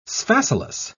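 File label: "sphacelus", British English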